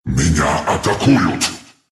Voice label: dragon under attack, ru; dota2